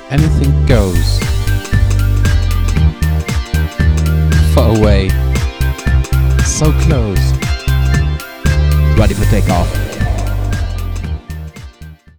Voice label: Take Off Commercial